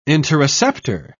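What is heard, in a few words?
"interoceptor", British English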